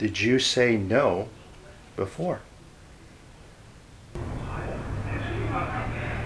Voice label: Male; evp